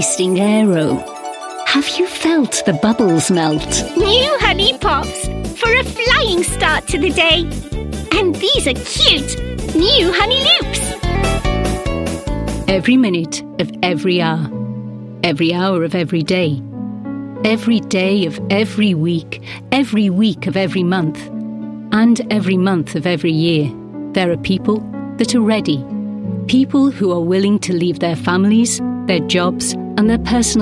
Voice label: British Female Voice Over Commercial